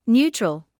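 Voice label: "neutral", British English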